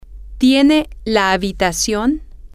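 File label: does the room have, spanish, female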